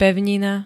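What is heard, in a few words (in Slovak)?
"pevnina", Slovak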